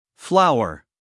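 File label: "flower", American English, male; 1000 hours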